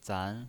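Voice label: "zan" Mandarin Chinese pinyin voice, second tone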